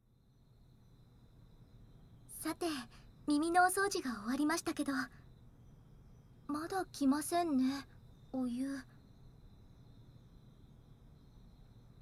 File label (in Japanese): オイルマッサージで緊張を解いてくださいね, ASMR